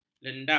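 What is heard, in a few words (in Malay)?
"lendap", Malay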